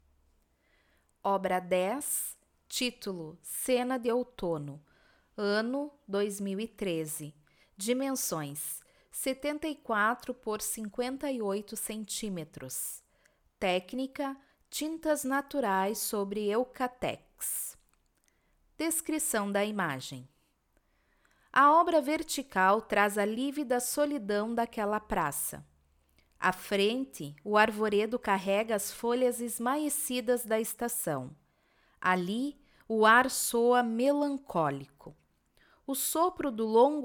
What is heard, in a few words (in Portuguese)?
audiodescricao; Galeria Virtual